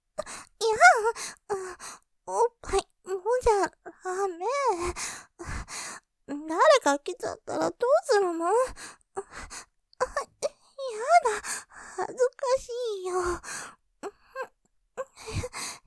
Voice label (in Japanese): 導入◆おっぱいもんじゃダメ・感じちゃう; ループで楽しむ！シェフの気まぐれ抜き抜きコース; モノラル